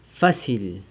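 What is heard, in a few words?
"fasily", San Lucas Quiaviní Zapotec; easy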